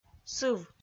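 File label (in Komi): "сыв", Komi, female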